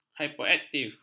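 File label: "hiperaktif", Malay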